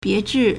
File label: "bie2zhi4", Chinese